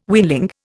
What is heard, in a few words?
"weanling", Indian